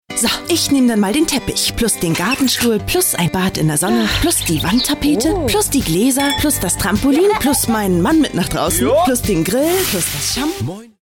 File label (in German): OFF Spiel; Rusta